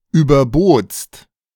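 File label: "überbotst", German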